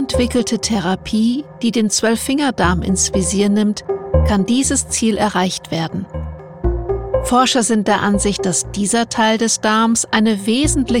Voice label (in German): Erklaervideo Blutzucker